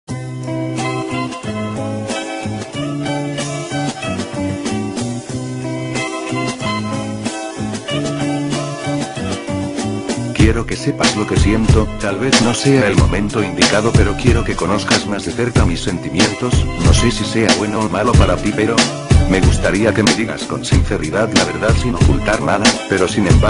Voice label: rap loquendo romantico